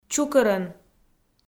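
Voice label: "чукӧрӧн", Komi-Zyrian, female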